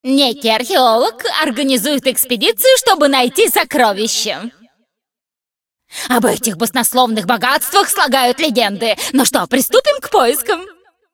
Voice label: cinematic voice, ru; lineage2